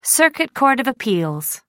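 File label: "circuit court of appeals", British English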